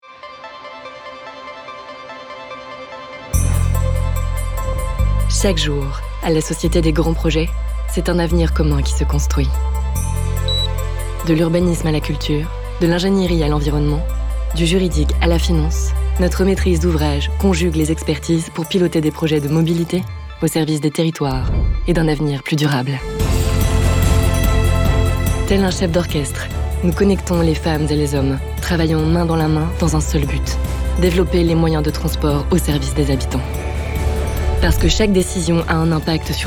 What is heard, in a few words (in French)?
Film Promo; Les Grands Projets